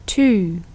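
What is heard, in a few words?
English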